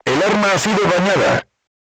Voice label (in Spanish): voice message weapon damaged, spanish; crew tanks